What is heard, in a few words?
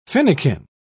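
"finikin", British English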